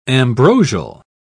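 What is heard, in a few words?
"ambrosial", English, British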